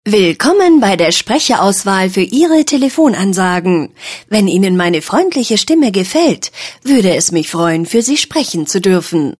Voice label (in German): INTRO deu freundlich, professionelle Telefonansage; Telefonanlage; Deutsch; professionelle ansage telefonansagen musik